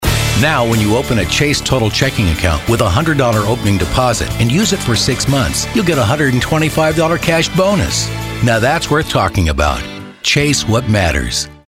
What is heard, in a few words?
friendly